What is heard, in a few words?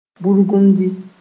"burgumdi", Moore